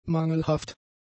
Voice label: "mangelhaft", German; adjectives